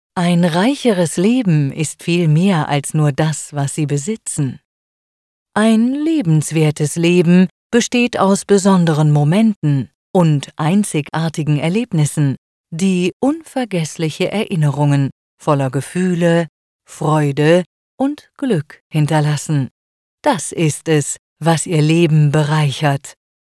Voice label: german corporate videos